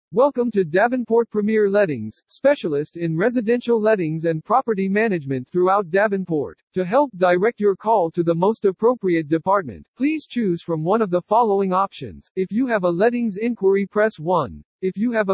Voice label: Male USA Press 1